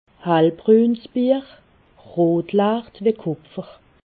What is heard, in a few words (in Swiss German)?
"hellbruns", alsace